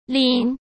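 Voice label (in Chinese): "拎", Mandarin Chinese; chinese-flashcards; hsk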